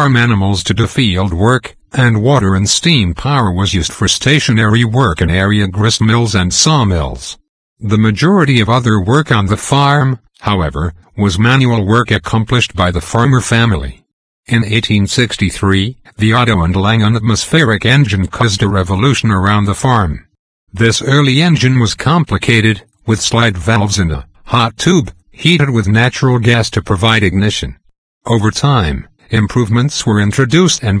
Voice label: HIT & MISS Engines; MP3Articles